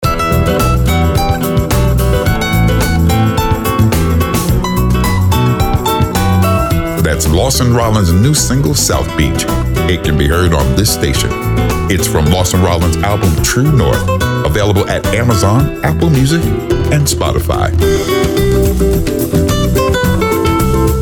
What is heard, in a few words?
promo; SOUTH BEACH